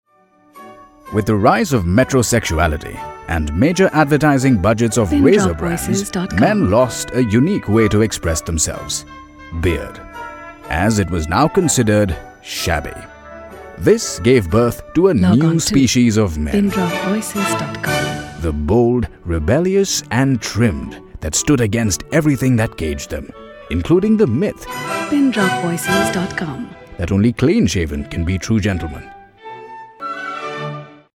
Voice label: English